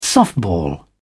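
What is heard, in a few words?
"softball", British English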